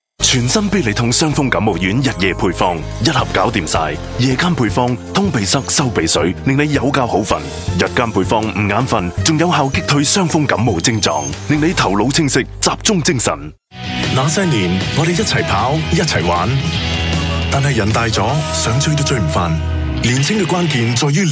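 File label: Canto TVC, Cantonese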